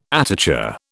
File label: "attach", British